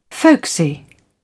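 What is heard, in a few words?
"folksy", British English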